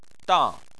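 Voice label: "dang" fourth tone, Chinese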